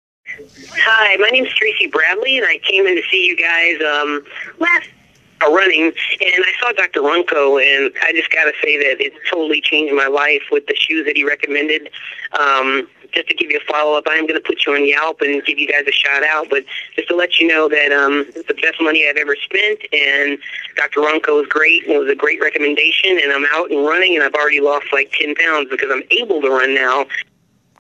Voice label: Testimonial